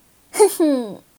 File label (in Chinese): 攻击, BattleCry; ycrpg; 薇薇安